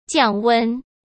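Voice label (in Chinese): "降温", Mandarin Chinese; hsk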